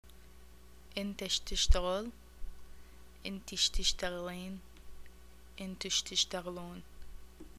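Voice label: what do you do, Iraqi Arabic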